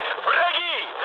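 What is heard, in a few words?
Russian enemy detected mood high, speech; War Thunder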